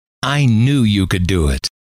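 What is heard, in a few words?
i knew you could do it, male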